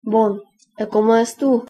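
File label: fine, Lingua Franca Nova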